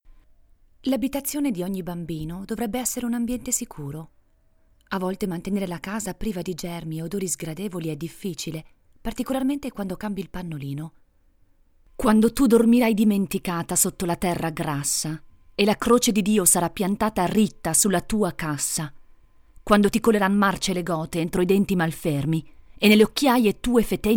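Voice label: Italian General; GoLocalise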